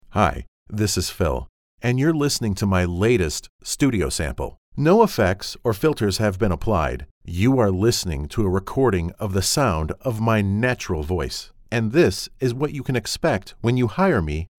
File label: studio